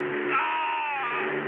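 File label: German damaged pilot, speech; War Thunder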